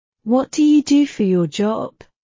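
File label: What do you do for your job, British English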